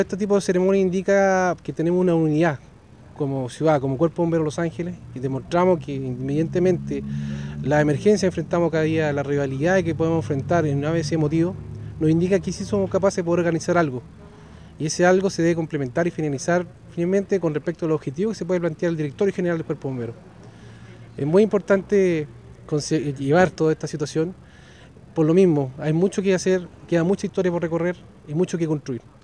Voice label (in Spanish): Cuña